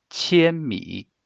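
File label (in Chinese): "千米" Mandarin Chinese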